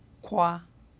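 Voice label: "kwa", syllable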